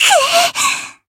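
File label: Voice damaged, Japanese; yukino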